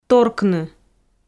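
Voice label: "торкны", Komi, female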